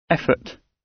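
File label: "effort", British English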